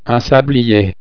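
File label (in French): "sablier", French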